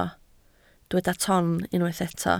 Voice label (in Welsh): "ton", Welsh